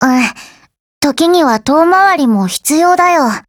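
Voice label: RETREAT, Japanese; Falcon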